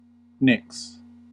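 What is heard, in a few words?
"nicks", US English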